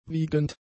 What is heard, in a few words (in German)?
"wiegend", German; adjectives